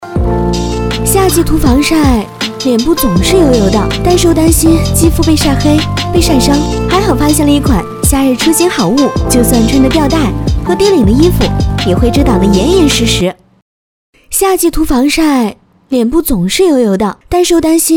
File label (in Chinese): 女 广告; 夏日